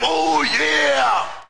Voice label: "oh yeah", voice; macho man